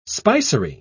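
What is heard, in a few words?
"spicery", British English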